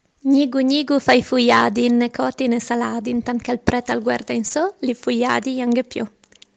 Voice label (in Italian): dialetto Reggio Emilia; Notte dei Racconti 2020